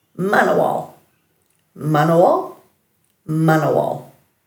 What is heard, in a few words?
"manawal", Dhurga